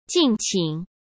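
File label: "敬请", Mandarin Chinese; hsk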